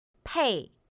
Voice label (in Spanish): "pèi", Chino